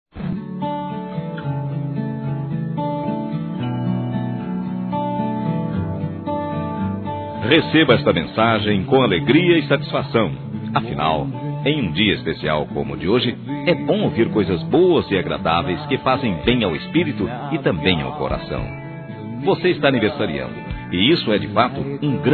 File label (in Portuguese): Aniversário Adolescente Masculino